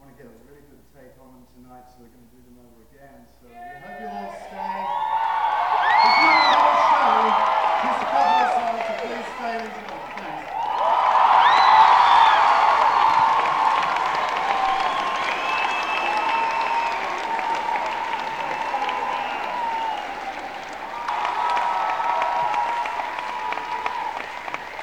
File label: announcement